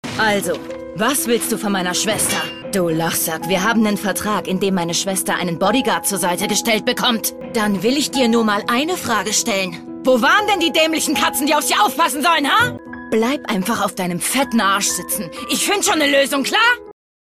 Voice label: Aggressiv

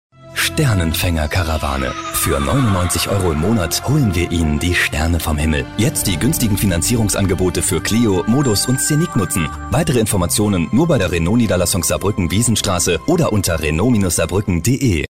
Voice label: Dynamisch

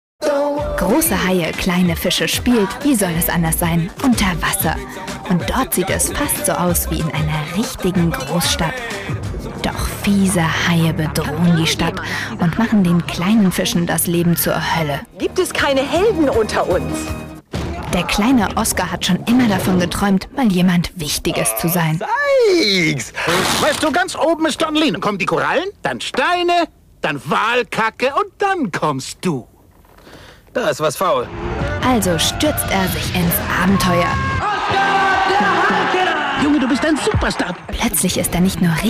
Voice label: Werbung Neutral

